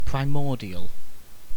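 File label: "primordial", British English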